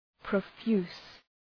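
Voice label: "profuse", English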